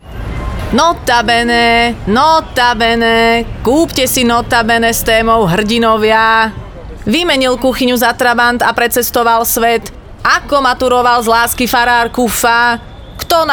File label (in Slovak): radiospot; NOTA BENE